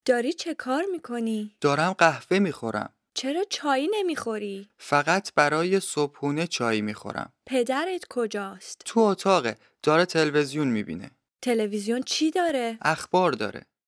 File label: Dialogue lesson Farsi